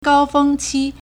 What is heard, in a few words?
"gao1 feng1 qi1", Chinese